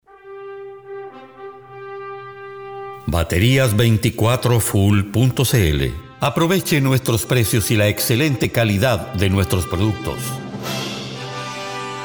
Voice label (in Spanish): publicidad baterias